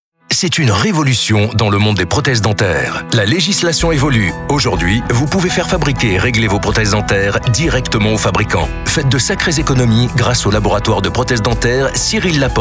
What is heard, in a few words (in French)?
spot radio